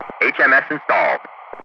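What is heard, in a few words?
"HMS installed", English, Ground Crew; DCS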